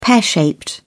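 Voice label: "pear shaped", British English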